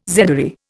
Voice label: "zedoary", Indian